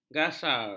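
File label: "gasar", Malay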